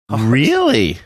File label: drawn out really